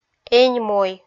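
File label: "энь мой", Komi, female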